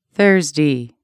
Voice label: "Thursday", English